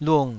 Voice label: "lung3", Taiwanese

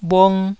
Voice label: "bhung1", taiwanese Syllables

